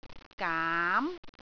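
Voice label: "gaam2", Cantonese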